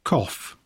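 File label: "cough", English; poitiers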